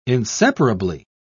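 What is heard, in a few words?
"inseparably", British English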